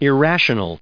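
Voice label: "irrational", US English pronunciation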